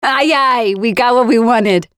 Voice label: Round win attack, Voiceovers; Project Argo